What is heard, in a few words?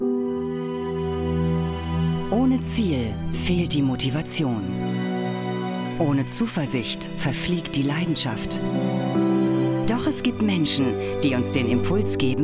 trailer; veuve cliquot